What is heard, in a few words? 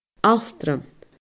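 "altraim", Scottish Gaelic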